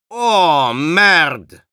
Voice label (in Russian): Spy jeers; «Ооо, merde.»